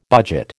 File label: "budget", American